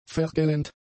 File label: "vergällend", German; adjectives